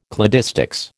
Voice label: "cladistics", American